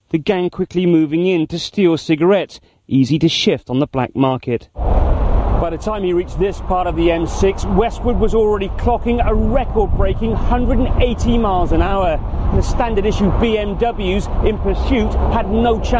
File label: audi commercial